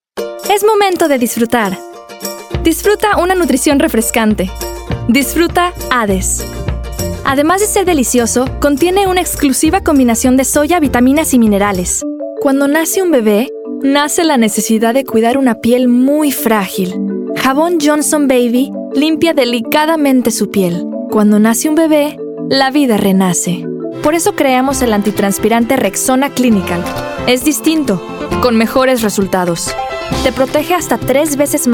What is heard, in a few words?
female, Spanish Mexican compilation; Devine Voices